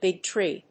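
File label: "big tree", English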